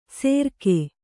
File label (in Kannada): "ಸೆರ್ಕೆ", Kannada